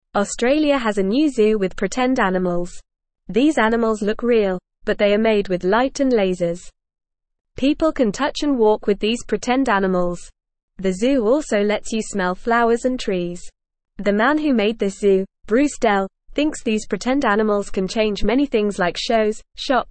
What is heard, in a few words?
English Newsroom Beginner FAST Reading; Australias New Zoo Pretend Animals Made with Light and Lasers